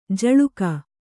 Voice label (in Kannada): "ಝುಳುಕು", Kannada